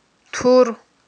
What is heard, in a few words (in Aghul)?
"тур", aghul